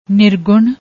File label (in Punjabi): "nirgun", Punjabi